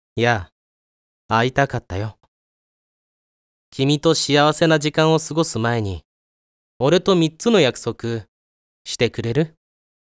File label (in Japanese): 注意（BGMなし）